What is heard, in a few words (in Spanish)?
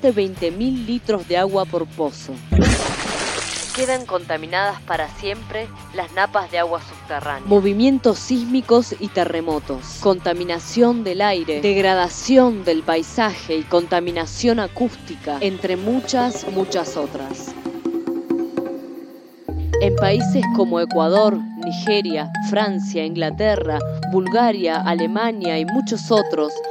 spot fracking ambiental